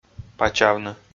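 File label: "пачавны", Komi, male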